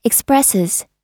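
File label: "expresses", US English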